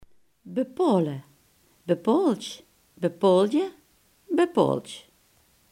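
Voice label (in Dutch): "bepalen" Limburgish dialect word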